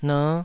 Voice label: "ner5", taiwanese

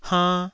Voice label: "hainn2", taiwanese

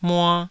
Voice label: "mua2", taiwanese

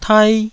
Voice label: "tai1", taiwanese Syllables

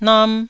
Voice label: "nam1", taiwanese

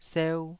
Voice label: "seu2", taiwanese Syllables

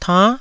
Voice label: "tann9", Taiwanese

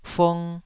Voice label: "fung2", Taiwanese syllable

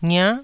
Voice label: "ngia9", taiwanese syllables

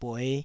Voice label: "bueh5", taiwanese Syllables

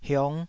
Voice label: "hiong5", taiwanese syllable

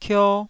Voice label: "kio1", taiwanese syllables

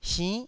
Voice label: "sinn9", taiwanese Syllables

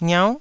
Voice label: "ngiau9", Taiwanese syllable

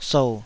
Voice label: "sou3", Taiwanese syllable

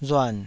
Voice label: "ruan3", Taiwanese

